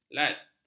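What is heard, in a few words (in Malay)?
"lat", Malay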